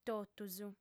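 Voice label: "totus", female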